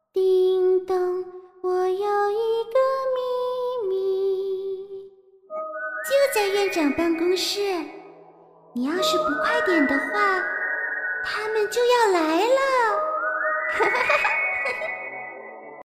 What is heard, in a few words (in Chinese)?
密室女童